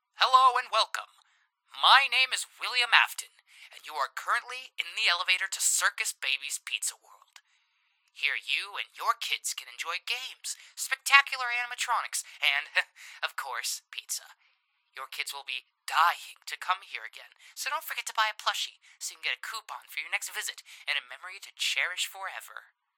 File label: Voice Acting elevator message; Five Nights at Ronald's